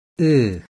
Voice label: close-mid back unrounded, IPA sounds